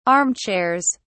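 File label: "armchairs", British English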